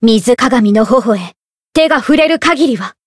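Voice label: Voice chant, Japanese; eva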